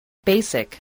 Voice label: "basic", English

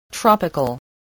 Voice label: "tropical", voice

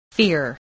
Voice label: "fear", spelling

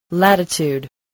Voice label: "latitude", English

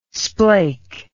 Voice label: "splake", English

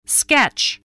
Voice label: "sketch", voice, spelling

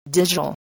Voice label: "digital", english spelling

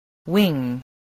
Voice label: "wing", voice, english

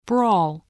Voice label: "brawl", spelling

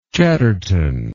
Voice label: "chattertonian", English

